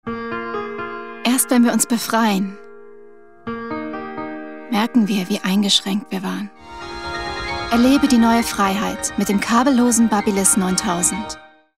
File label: female german commercial; babyliss 9000